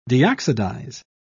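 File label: "deoxidize", British English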